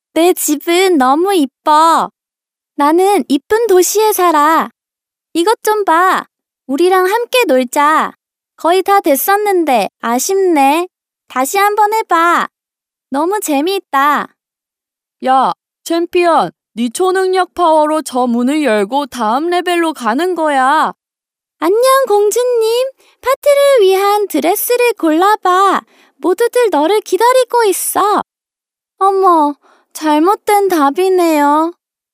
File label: Female Korean Toys